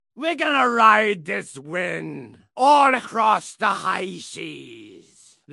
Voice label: happy; fertig classic games; blackwind